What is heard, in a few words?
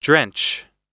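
"drench", American English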